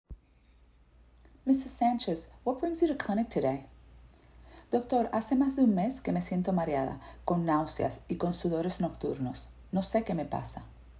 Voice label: Medical Certified Oral Spanish Practice